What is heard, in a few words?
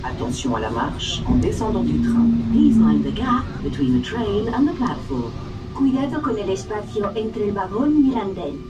mind the gap, Metro